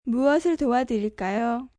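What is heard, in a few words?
How Can I Help Korean, Telephone operator, Korean, female; VoiceBox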